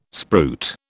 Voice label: "spruit", British